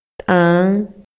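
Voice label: Maya, male; HABLA Y LENGUAJE; INALI; MayaYuc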